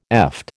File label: "eft", American